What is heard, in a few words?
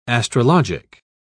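"astrologic", British English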